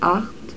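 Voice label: "ACHT", German; telltime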